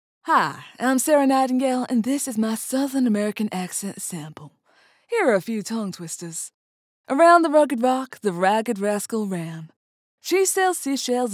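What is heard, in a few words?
Accent Sample Southern American, female voice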